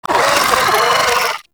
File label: Vocal Attack; Enemies; Vocals; Warframe; Amalgam Moa Satyr